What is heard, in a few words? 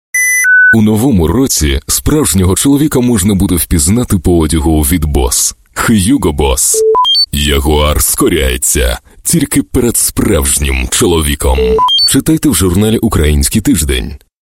Ukrainian, Male